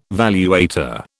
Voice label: "valuator", British

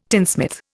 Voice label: "tinsmith", Indian

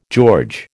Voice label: "George", American

